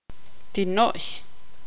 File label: tzutujil